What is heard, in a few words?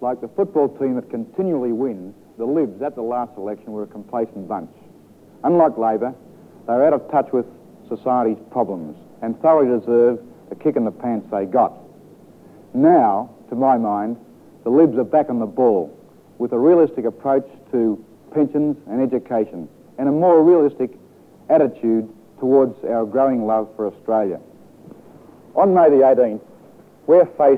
liberal ad; election ads; 1974; ron barassi; ch7